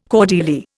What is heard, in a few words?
"cordelier", Indian